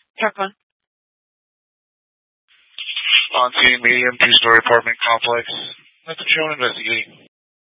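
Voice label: Fire Dispatch, Scanner audio recording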